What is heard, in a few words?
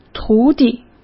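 "徒弟" Mandarin Chinese; chinese-flashcards; hsk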